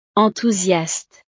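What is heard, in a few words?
"enthousiaste", French; estados animo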